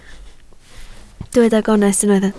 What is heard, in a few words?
"gonest", Welsh